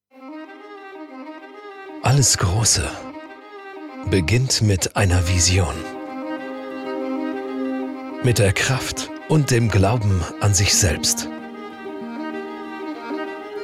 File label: corporate emo; Proventus Image